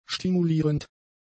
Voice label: "stimulierend", German; adjectives

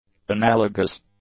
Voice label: "analogous", English; adjectives

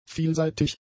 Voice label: "vielseitig", German; adjectives

